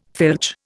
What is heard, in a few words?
"filch", Indian